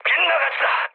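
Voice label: Korean bomb failure mood med; War Thunder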